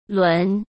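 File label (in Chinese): "轮", Mandarin Chinese; chinese flashcards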